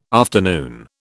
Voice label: "afternoon", British

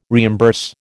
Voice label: "reimburse", American

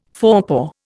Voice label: "forepaw", Indian

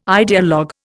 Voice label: "ideologue", Indian

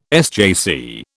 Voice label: "SJC", British

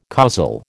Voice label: "causal", American

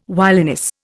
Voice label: "wiliness", Indian

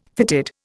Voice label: "fitted", Indian

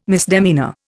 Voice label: "misdemeanour", Indian

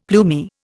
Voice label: "plumy", Indian; Dictionary

